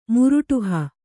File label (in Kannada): "ಮುರುಟುಹ", Kannada